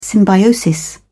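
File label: "symbiosis", British English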